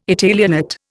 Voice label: "Italianate", Indian